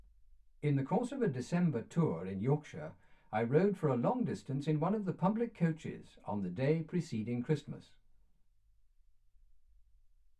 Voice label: male speech english